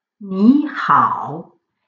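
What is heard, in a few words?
"ni hao", Chinese; Chinees leren met Pinyin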